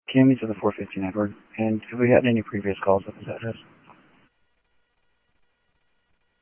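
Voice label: Sheriff West County, scanner audio trunk; ThompsonPeakScot; MCSO - WEST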